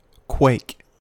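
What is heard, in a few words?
"quake", US English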